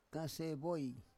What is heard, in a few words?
"kasevoi", Roon